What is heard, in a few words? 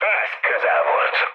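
Hungarian bomb failure mood high, speech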